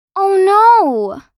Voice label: Teenage girl saying oh no; misc human